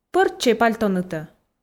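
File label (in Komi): пӧрччӧй пальтонытӧ, Komi, female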